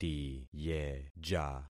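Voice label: "tiyeja", concatenated syllables; Affixing experiment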